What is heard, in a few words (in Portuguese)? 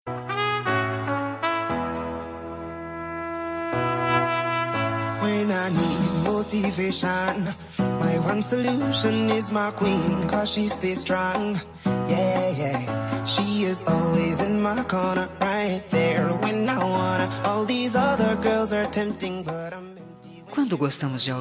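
birthday ESPOSO female, telemensagem; aniversario esposo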